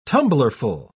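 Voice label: "tumblerful", British English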